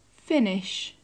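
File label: "finish", British English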